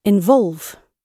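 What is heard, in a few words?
"involve", British English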